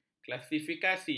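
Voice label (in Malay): "klasifikasi", Malay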